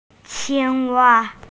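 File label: "frog", hearing impaired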